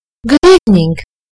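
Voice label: "good evening", British English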